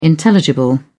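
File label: "intelligible", British English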